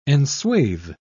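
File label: "enswathe", British English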